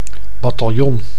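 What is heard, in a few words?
"bataljon", Dutch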